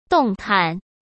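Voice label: "动弹", Mandarin Chinese; hsk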